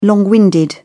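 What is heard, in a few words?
"long winded", British English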